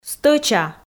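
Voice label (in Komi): "стӧча", Komi, female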